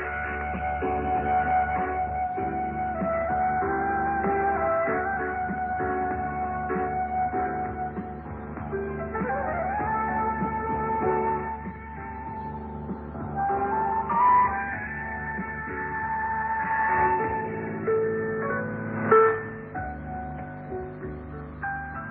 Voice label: voice of indonesia, 9525.9k

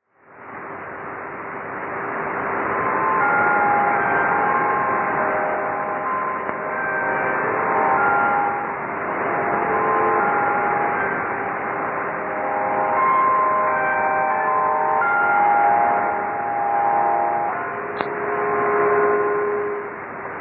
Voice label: 15140kHz Radio Sultanate of Oman

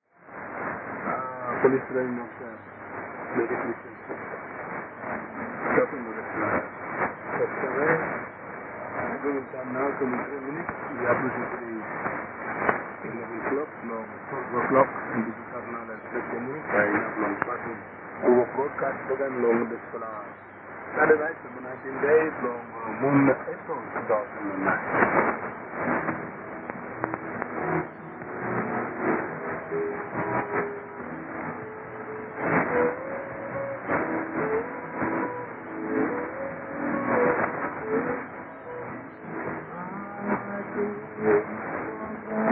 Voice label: 3275kHz; NBC-Southern Highlands

